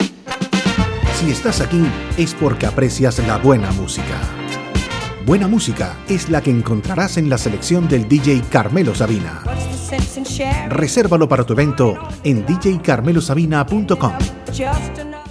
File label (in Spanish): Cuña; kiss-fm